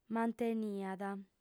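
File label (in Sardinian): "manteniat", Sardinian, female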